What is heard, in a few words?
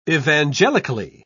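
"evangelically", British English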